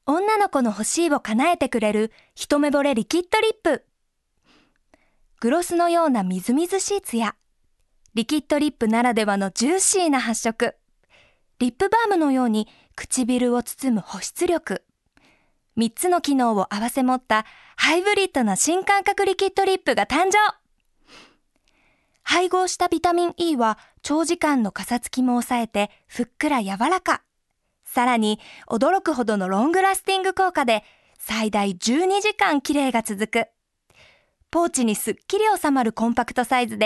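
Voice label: Voice Sample Narration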